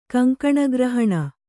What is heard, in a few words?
"ಕಂಕಣಗ್ರಹಣ", kannada